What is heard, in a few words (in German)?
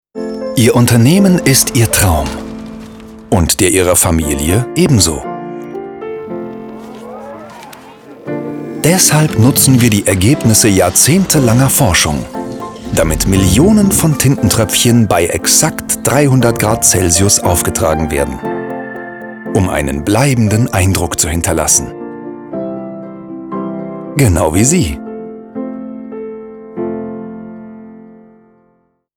werbung; hewlettpackard